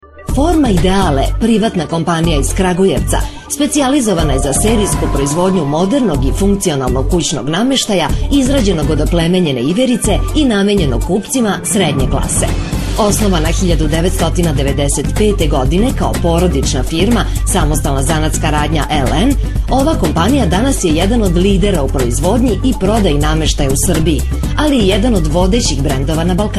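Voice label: Serbian female commercial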